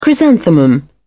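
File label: "chrysanthemum", British English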